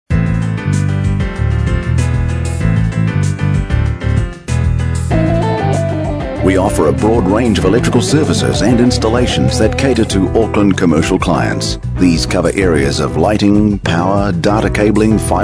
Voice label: on hold